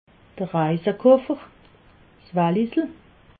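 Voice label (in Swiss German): "reisekoffer", Alsatian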